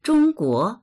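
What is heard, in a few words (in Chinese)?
"zhong guo" Chinese; 中国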